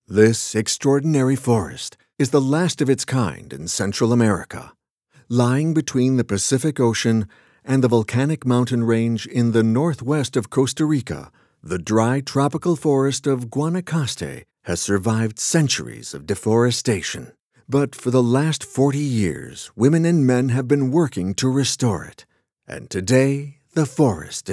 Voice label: docu; Costa Rica